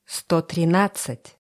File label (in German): russische zahl 20; Zahlen auf Russisch